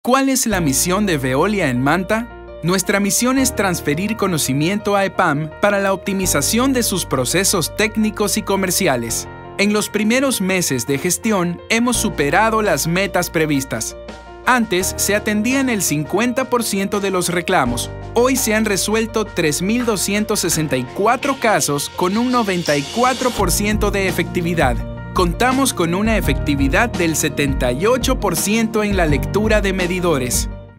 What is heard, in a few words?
spanish latin american corporate videos